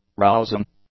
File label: "rousing", English; adjectives